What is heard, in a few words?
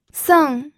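"seng4", Mandarin Chinese pinyin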